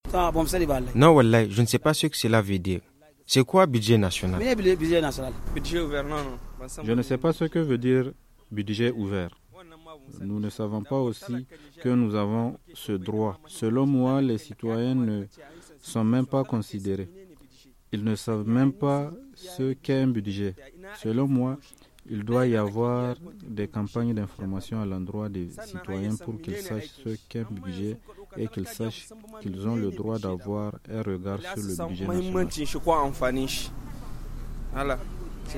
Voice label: FR VOX POP; BUDGET OUVERT